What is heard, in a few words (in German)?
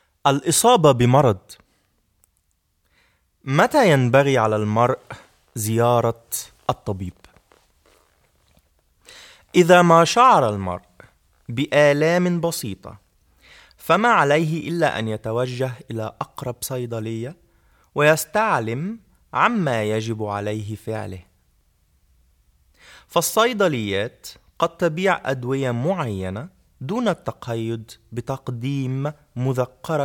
krank sein arabisch